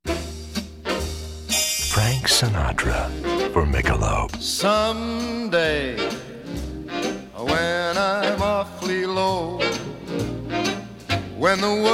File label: Radio Commercials - Micholob Beer